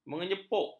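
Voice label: "menyepuk", Malay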